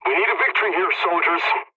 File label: pilot mission start, english; War Thunder